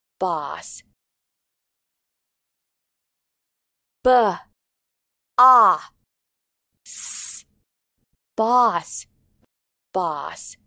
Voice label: "boss", English

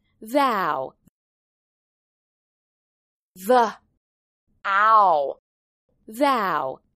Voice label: "vow", English

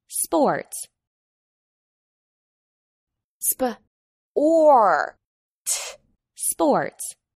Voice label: "sports", English

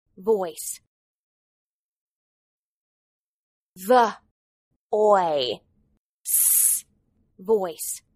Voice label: "voice", English

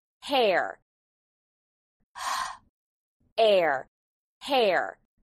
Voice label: "hare", English

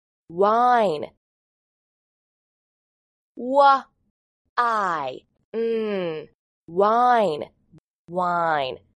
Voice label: "spell wine", English

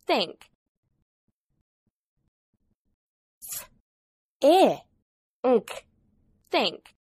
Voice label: "think", English

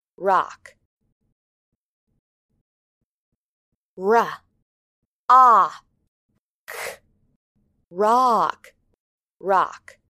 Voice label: "rock", English

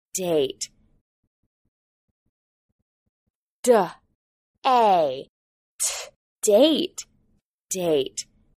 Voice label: "date", English

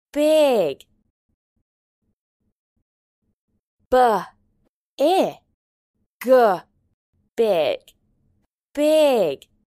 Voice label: "big", English

